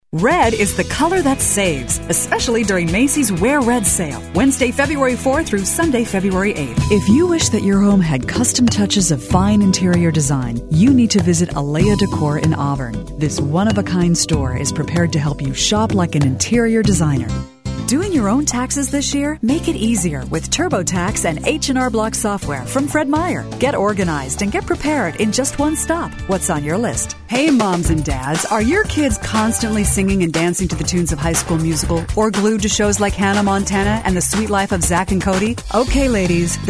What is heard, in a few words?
commercial